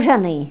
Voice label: "zheny", Tula; Lexems zhena